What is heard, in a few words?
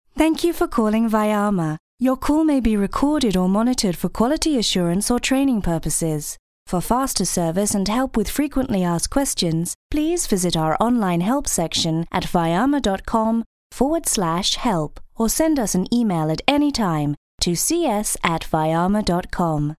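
UK English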